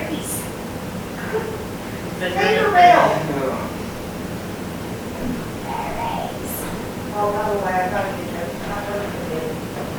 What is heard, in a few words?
Unexplained Voice (Berries) Amplified